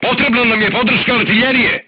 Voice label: voice message art command, crew tanks serbian